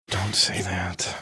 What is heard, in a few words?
whispering dont do that